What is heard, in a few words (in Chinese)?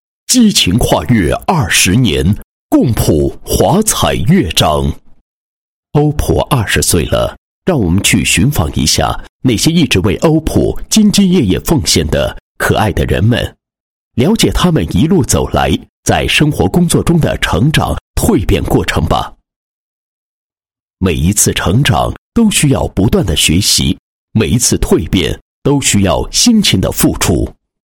男 宣传广告; 欧普